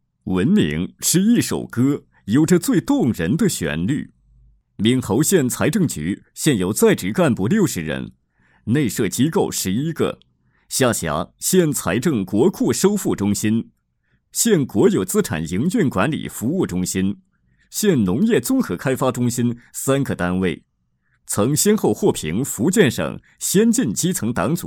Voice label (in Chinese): 单位专题 财政局, 国语男声配音, 稳重专题; 闽侯县